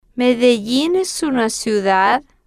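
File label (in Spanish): Medellin es una ciudad, Spanish, female, slow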